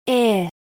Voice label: I itch phoneme